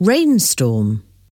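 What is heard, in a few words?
"rainstorm", English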